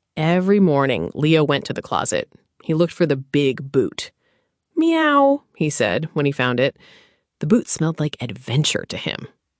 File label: narration; o gato no sapato; historia en A2